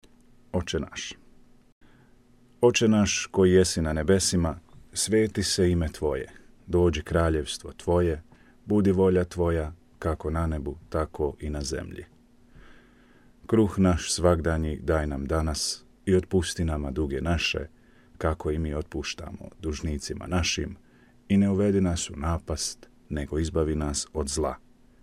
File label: Our Father Croatian